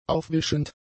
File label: "aufwischend", German; adjectives